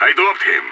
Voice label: enemy down, radio; SAS